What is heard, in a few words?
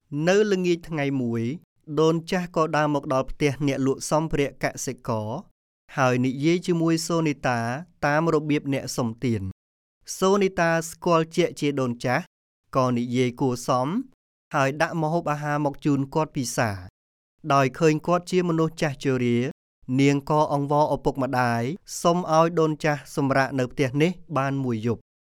Khmer